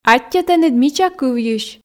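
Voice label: "аттьӧ тэныд мича кывйысь" Komi, female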